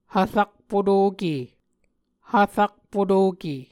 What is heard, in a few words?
"hvrakpotoke", Muscogee